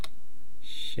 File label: "she", east cree syllabics